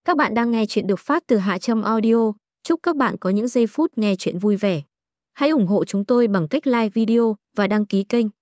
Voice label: intro voice; Mui Huong Trong Ban Di Chuc; HaTramAudio